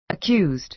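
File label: "accused" American English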